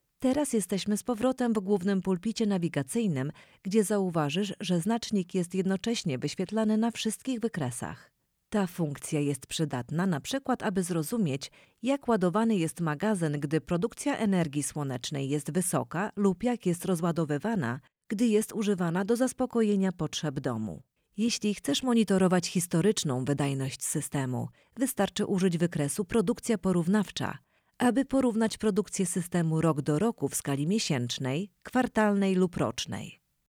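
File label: Elearning Polish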